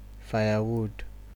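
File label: "firewood", Nigerian Pidgin, male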